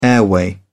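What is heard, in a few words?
"airway", British English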